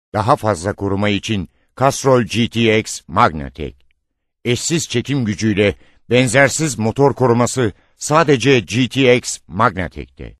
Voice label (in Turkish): reklam